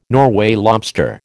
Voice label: "Norway lobster", American